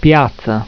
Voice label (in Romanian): "piata", romanian; plaatsen